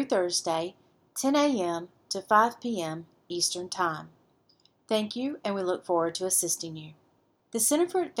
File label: Office Closed Recordings, voice over